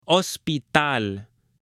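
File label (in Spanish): "HOSPITAL", Spanish, male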